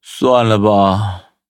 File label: defeat, zh-hant; cookie run